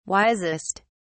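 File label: "wisest", English, British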